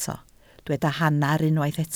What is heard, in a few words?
"hanner", Welsh